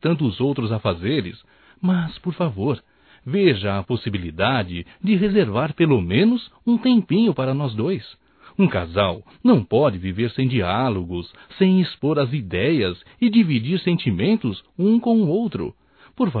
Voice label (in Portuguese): QUERO SUA ATENÇÃO, Românticas, masc